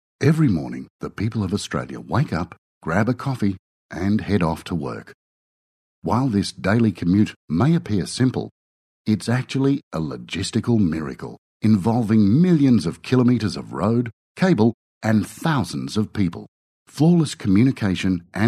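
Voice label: English, Australian, male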